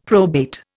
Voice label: "probate", Indian; Dictionary